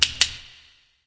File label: vox click; smite; camazotz